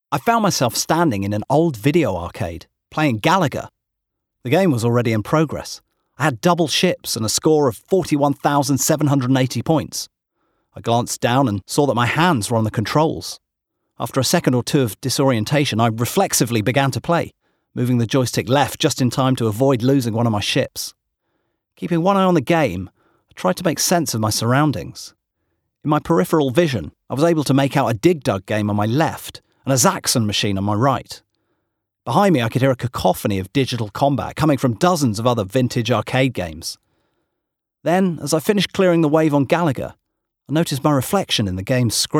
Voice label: Audiobook; Ready Player One

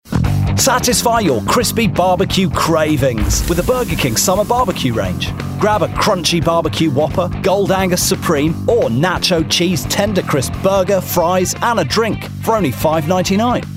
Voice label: Commercial; Burger King

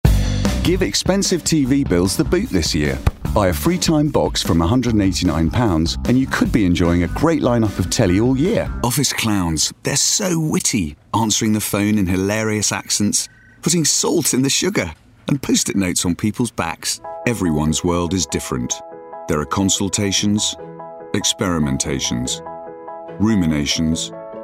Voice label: Commercial Showreel; 40/50's, London/Neutral, Clear/Deep/Reassuring

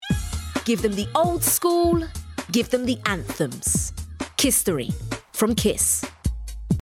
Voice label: promo

